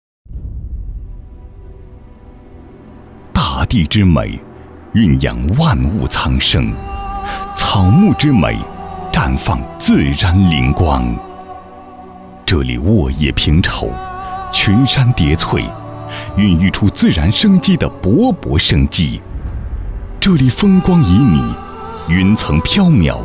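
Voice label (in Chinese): 专题大气; 林业局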